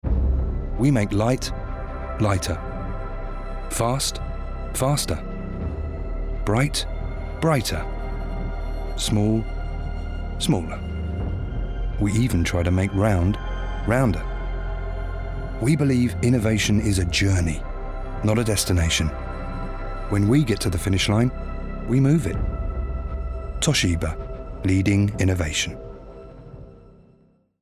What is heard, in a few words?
Clear, Assured, Engaging; Toshiba